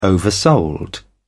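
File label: "oversold", English, British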